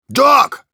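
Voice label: Engineer medic, Russian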